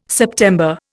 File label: "September", Indian